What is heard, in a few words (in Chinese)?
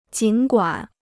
"尽管", Chinese